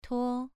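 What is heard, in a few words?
"tuo" Mandarin Chinese, second tone, pronunciation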